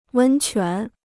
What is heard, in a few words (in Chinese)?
"温泉", Chinese